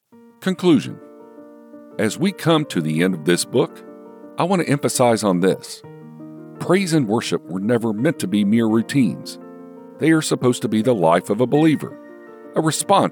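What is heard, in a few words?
christian audiobook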